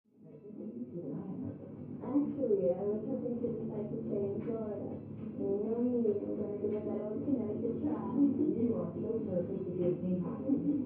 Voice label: Television; Daytime Show With Dialog And Some Music. From Next Room.